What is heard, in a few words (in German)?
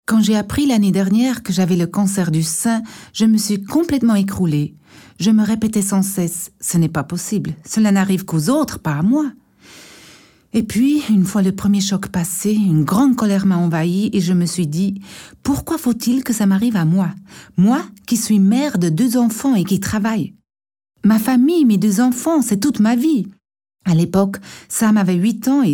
Kommentar Arztbericht